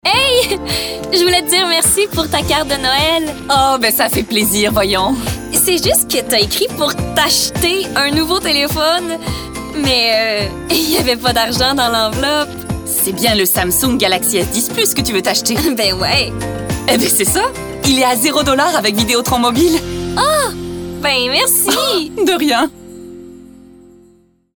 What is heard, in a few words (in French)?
Pub fictive Amicale Sourire Humour; Samsung